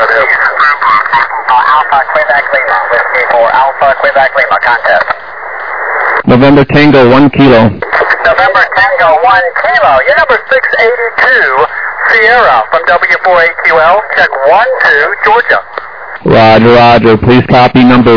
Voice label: SSB USB 14MHz

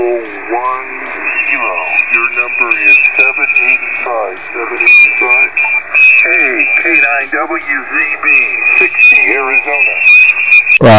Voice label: USB, 14MHz

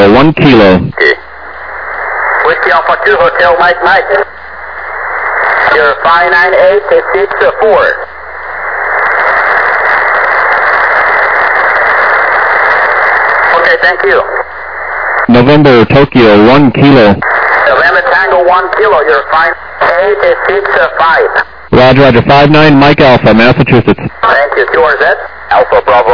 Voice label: USB 28MHz; HK1T; ARRL10M

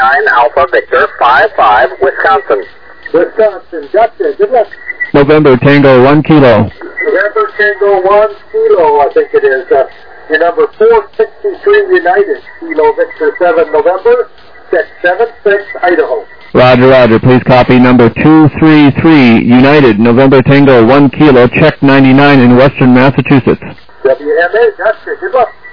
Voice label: USB 21MHz

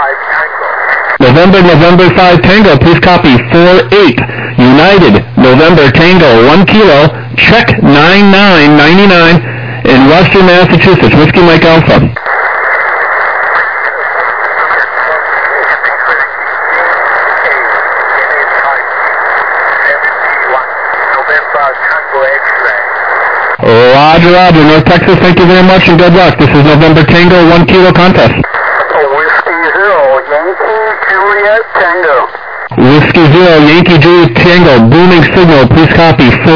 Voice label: SSB USB 21MHz

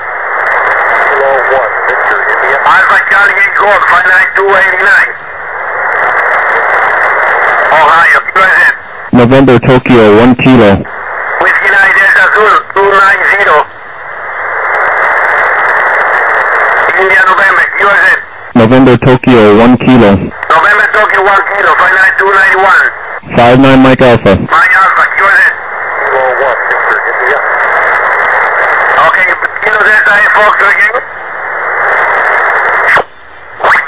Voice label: USB 28MHz; ARRL10M

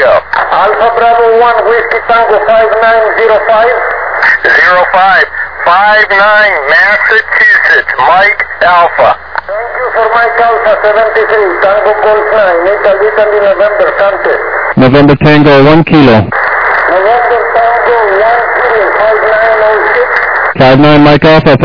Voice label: USB 28MHz; ARRL 10M

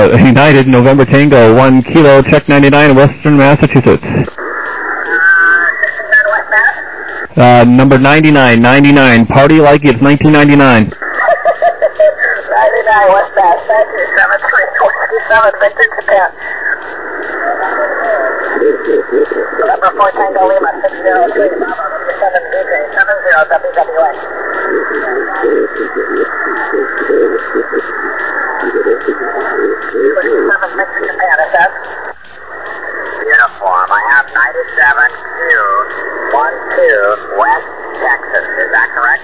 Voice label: USB, 21MHz

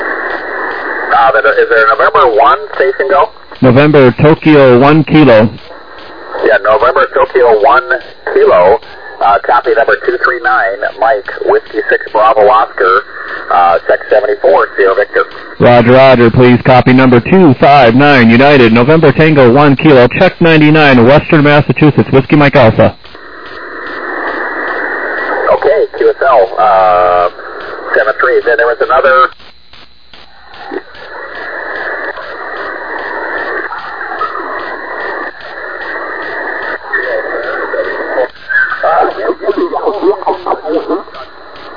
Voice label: SSB USB 21MHz

